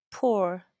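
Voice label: "poor", American English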